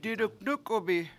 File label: "diduNUkubi7" I scolded him, Washo